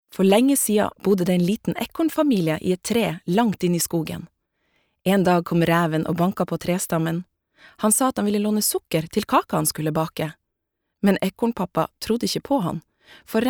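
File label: Norwegian children's book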